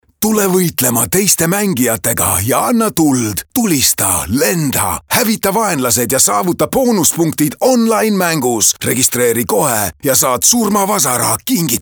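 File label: Estonian male Rage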